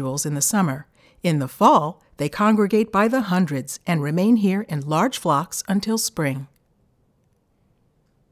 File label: Ring Gulls English